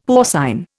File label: "porcine", Indian; Dictionary